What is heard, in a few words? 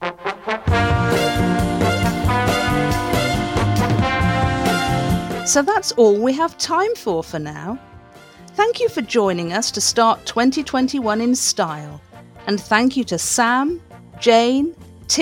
Closing Announcement